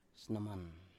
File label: "snoman", Biak